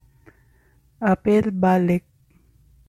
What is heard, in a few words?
Cebuano